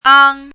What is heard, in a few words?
"āng", hanzi